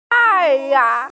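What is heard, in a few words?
"tài yáng", Mandarin Chinese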